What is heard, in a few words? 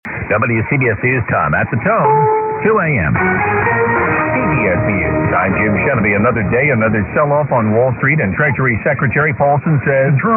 news, mw dxing; wcbs; 880